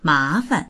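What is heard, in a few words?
"ma2fan", Mandarin Chinese; 麻烦; 迷惑をかける; dictionary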